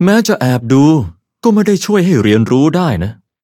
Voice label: level up, th; cookierunwiki